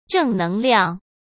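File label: "正能量", Mandarin Chinese; hsk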